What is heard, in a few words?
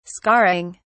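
"scarring", British English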